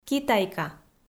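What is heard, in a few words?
"китайка", Komi, female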